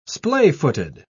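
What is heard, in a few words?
"splayfooted", British English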